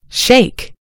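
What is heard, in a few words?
"shake", American English; gcompris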